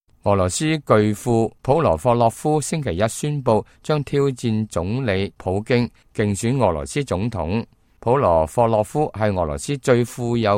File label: cantonese, news